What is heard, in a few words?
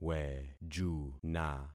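"wejuna", concatenated syllables; Affixing experiment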